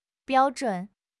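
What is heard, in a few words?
"biao1 zhun3", Mandarin Chinese; HSK4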